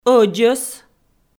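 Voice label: "ӧдзӧс", Komi-Zyrian, female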